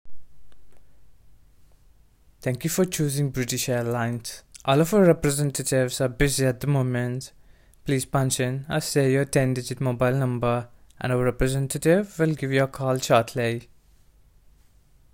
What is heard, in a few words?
British Accent, voice overs; TOKI; Breath of Life